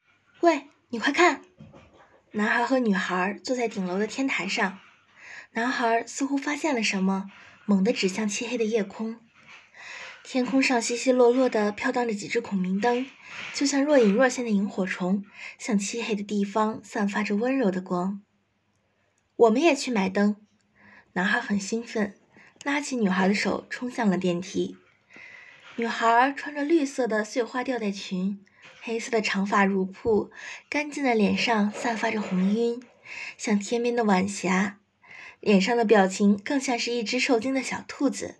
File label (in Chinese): 成人有声读物试音